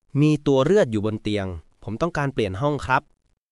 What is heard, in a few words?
Thai, basic thai phrases